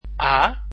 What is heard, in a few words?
"a", Dutch alphabet